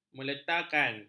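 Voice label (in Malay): "meletakan", Malay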